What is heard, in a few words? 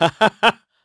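Vox Happy, Korean; KaselB; kingsraid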